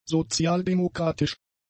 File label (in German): "sozialdemokratisch", german; adjectives